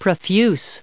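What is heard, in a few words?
"profuse", US English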